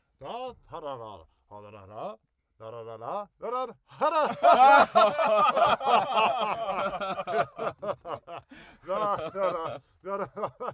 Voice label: talk laugh

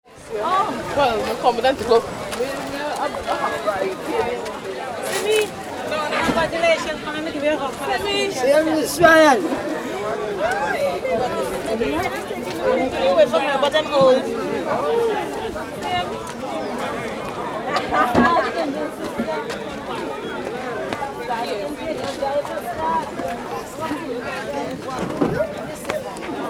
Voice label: people in caribbean, voices

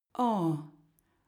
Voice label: female comfort; koerpergeraeusche

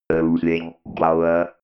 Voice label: robot voice loosing power

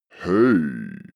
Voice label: male hey low

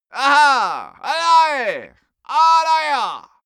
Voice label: male gibberish attack; menschen; schreie

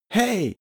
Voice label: male teen hey

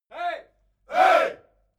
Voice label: military shout "hey"

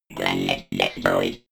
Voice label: robot voice planet destroyed fx

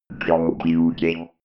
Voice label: robot voice computing

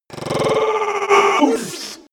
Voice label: monster glitch talk; kreaturen

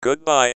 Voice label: web speech good bye

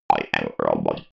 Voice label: robot voice i am robot

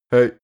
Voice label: male hey short

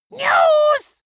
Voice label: dwarf scream news, voices

